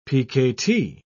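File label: "pkt", British English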